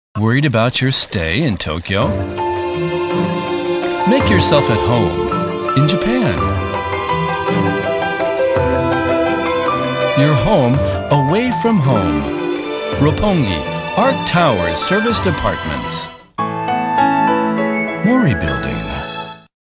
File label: usa man